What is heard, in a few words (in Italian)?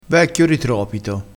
"ritropito", crespinese